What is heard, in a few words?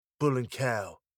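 bull and cow, British English pronunciation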